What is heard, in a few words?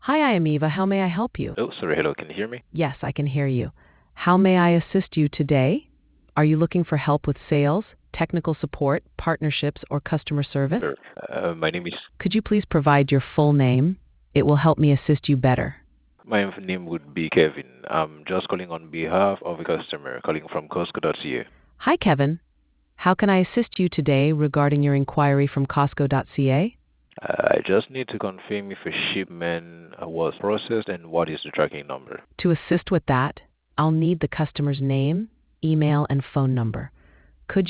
conversation